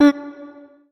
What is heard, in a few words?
Shortstack voice sound; heartbound